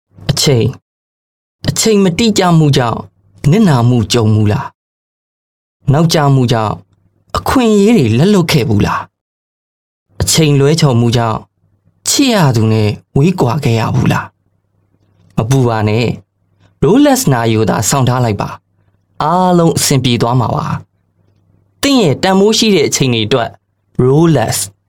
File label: m Burmese TVC